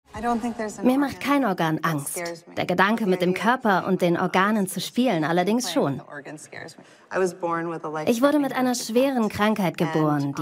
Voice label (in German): Voiceover; Arte Tracks